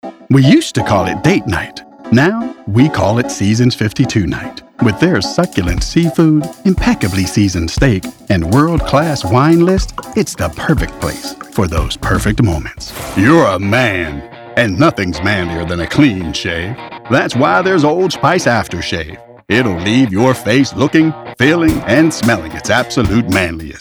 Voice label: commercial